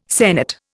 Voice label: "sennit", Indian